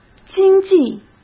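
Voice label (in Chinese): "经济", Mandarin Chinese; chinese-flashcards; hsk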